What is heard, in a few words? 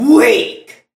evil villian